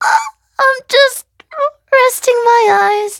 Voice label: hit, en; cookie run

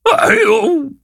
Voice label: defeat, zh-hant

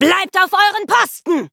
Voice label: battle, de; cookie run

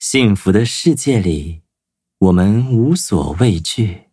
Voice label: loading, zh-hant

